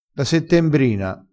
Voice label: "settembrina", dialettale

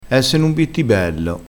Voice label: "bittibello", vocabolario dialettale

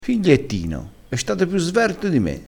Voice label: "figliettino", vocabolario dialettale

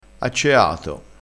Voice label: "acceato", Crespinese

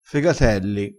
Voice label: "fegatelli", crespinese

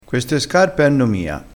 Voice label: "mia", dialettale crespinese

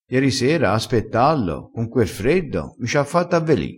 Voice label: "avveli", crespinese

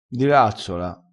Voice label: "dirazzola", crespinese

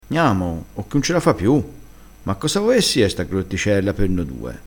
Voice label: "grotticella", dialettale crespinese

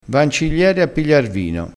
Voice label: "ciglieri", crespinese

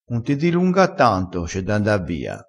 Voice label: "dilunga", vocabolario dialettale

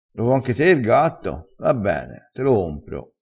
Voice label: "te", crespinese

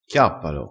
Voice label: "chiappa", crespinese

